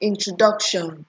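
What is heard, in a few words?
"introduction", Nigerian Pidgin, female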